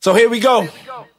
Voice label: "so here we go", male